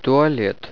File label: restroom, russian